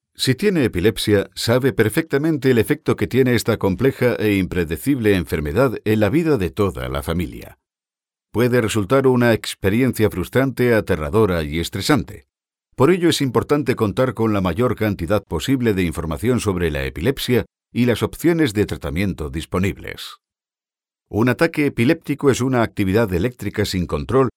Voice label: spanish e learning